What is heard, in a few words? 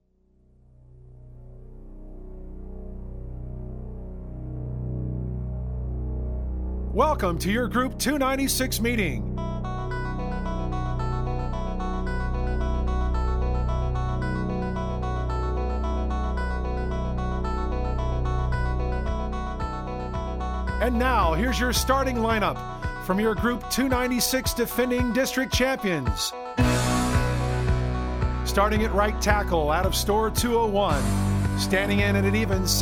corporate company voice over announcer; target